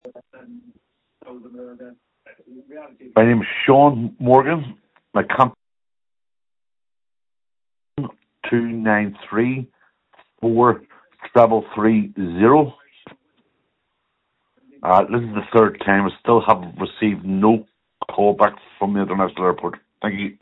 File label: call recording